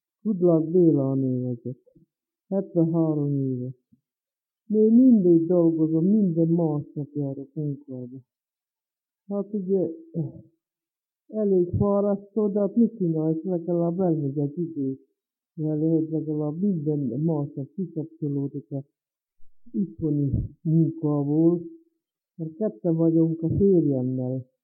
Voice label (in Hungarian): nyelvjaras gyujtesek